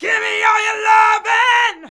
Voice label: "gimme all", shouts; Best Service; Hyper Dance